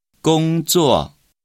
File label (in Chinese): "工作", Chinese